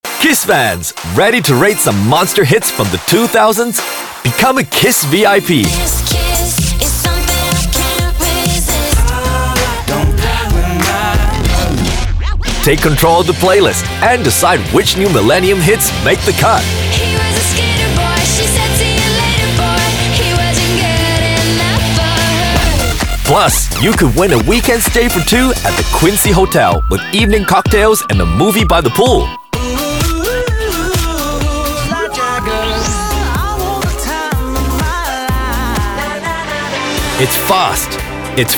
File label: English Singapore radio imaging; australian voice actors